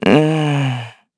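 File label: Vox Deny, Korean; Evan; kingsraid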